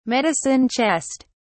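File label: "medicine chest", English, British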